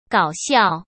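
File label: "搞笑", Mandarin Chinese; chinese-flashcards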